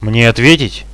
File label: friendly fire, Russian; bot radio